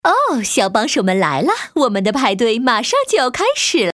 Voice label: 动画片 大象女士

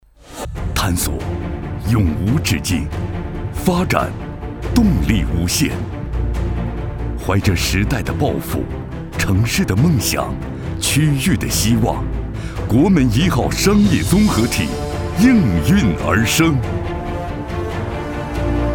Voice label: 男国 广告 地产 大气; 国门一号院

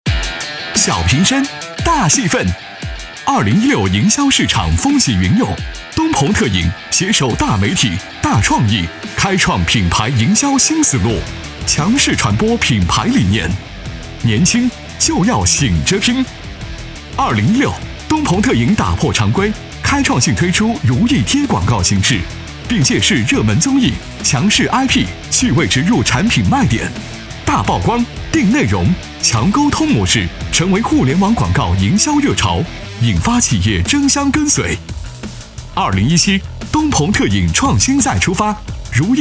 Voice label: 男国 广告 食品饮料 年轻; 东鹏特饮

